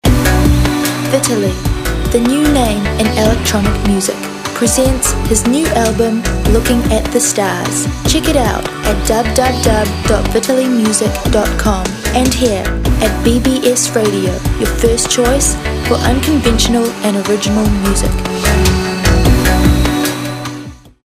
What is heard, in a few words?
bbs radio promo